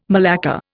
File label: "malacca", Indian